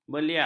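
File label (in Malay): "beliak", Malay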